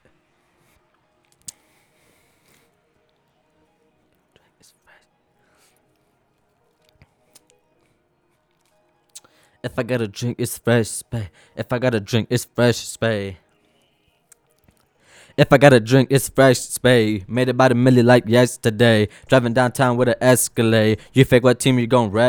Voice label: recordin Mic Input; flstudio; Vocals